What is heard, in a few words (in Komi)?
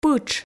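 "пытш", Komi, female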